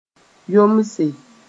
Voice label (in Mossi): "yomse", moore; adjust